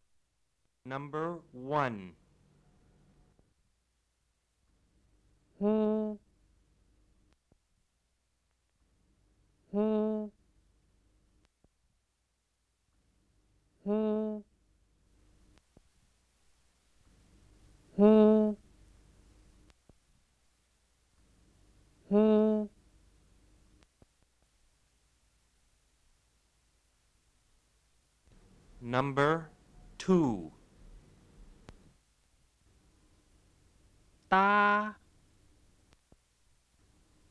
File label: Tai Lue Pattani Malay